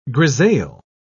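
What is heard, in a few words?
"grisaille", British English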